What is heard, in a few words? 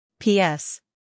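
"scandal", American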